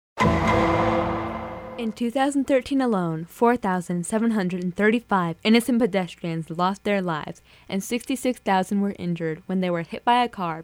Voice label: PSA

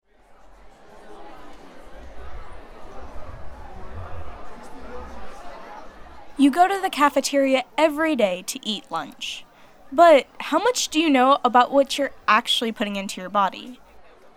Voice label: PSA

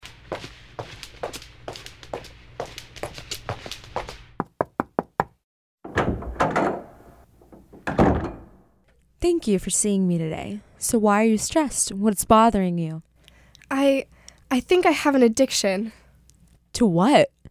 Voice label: CELL PHONE PSA

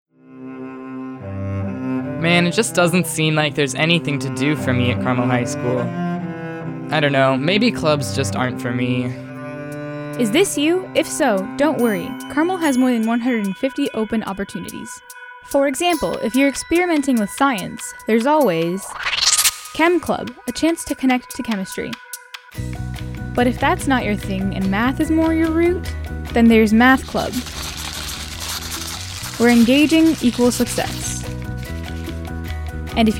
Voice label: PSA

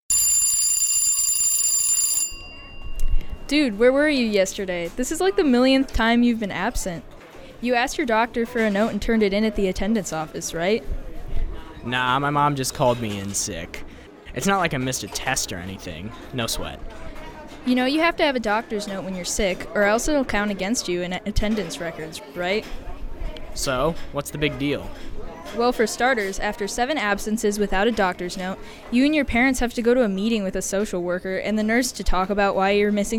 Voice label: PSA

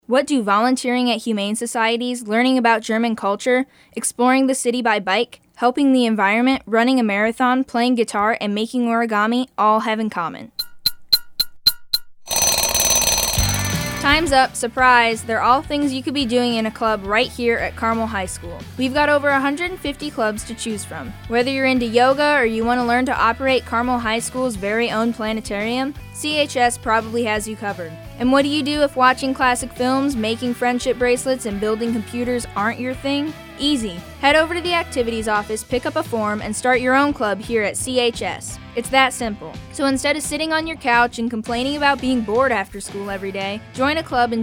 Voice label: PSA

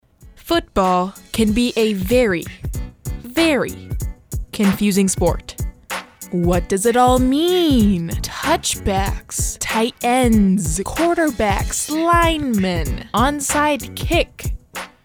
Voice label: VOXPOP

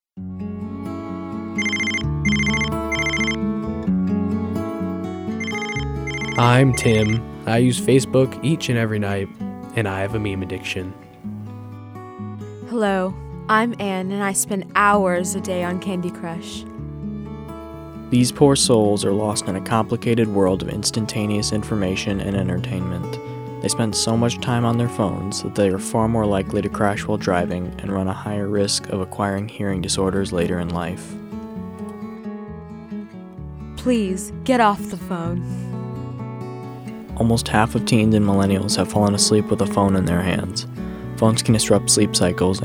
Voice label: PSA

